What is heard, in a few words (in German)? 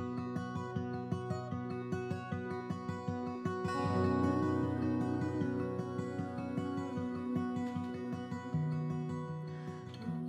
stimme mit charisma